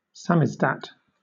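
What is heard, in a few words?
"samizdat", British English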